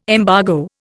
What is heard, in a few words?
"embargo", Indian